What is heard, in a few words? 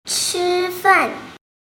"chifan", Mandarin